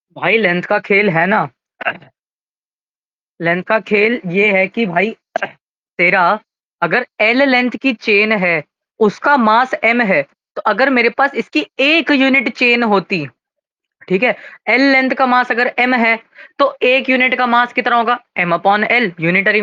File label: voice message